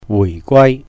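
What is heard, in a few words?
"wuìh gwài", return, Cantonese; 回歸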